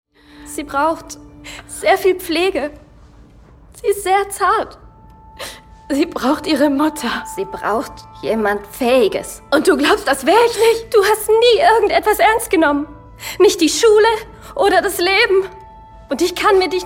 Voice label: synchron; call the midwife

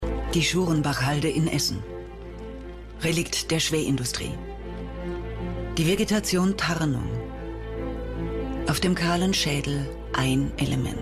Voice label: doku

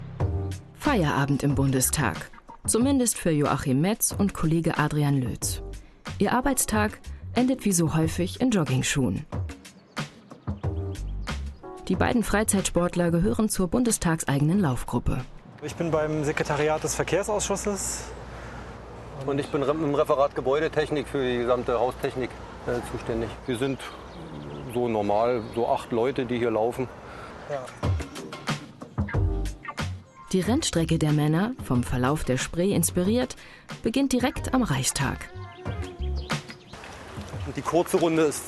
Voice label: doku; berlin am wasser

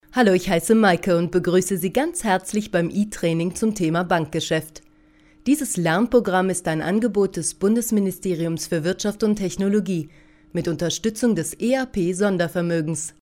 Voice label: e-learning programm

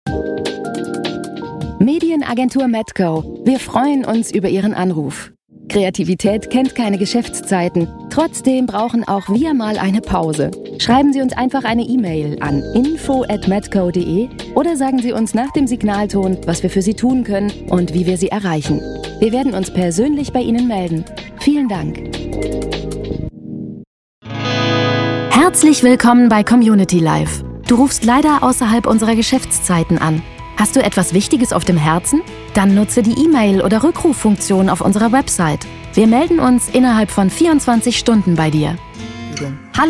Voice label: telefonansagen; Sprecher in Köln; Muttersprache Deutsch

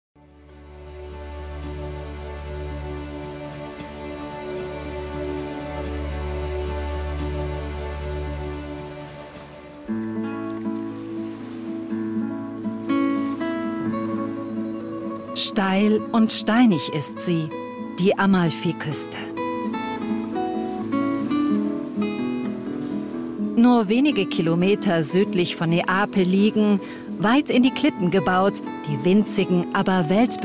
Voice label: offkommentar narrativ zugewandt; arte; die welt der zitrusfruechte

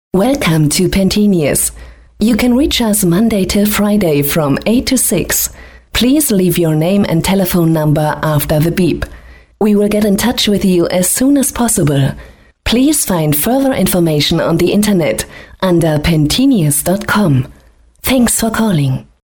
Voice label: englisch telefonansage; pantaenius

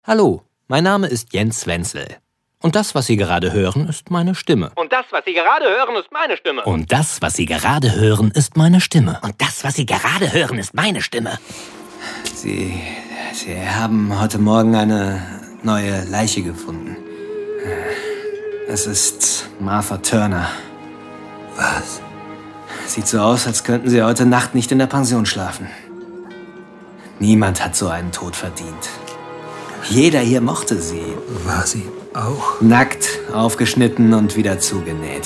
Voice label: charakterstimmen

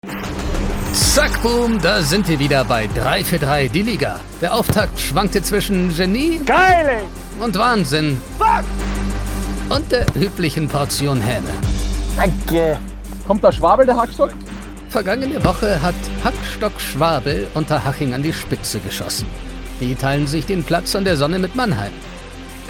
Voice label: kommentar

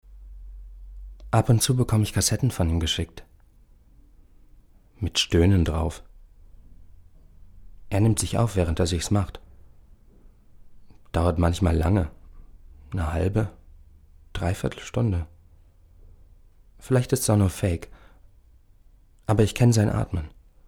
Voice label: prosa hoerbuch; sagor

